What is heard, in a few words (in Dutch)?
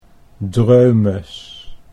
"dreumes", Dutch